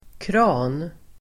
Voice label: "kran", Swedish

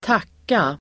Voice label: "tacka", Swedish

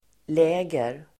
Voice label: "läger", swedish

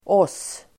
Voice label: "oss", swedish

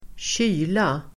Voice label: "kyla", Swedish

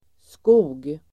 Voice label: "skog", Swedish

